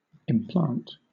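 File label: "implant", British English; pronunciations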